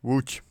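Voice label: "Łódź", Polish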